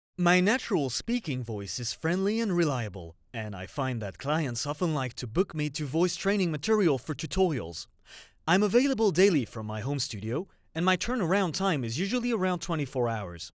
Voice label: RAW Studio EN